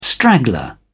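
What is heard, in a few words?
"straggler", British English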